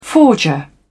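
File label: "forger", British English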